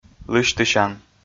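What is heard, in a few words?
"лысьтысян", Komi, male; kpv audio dict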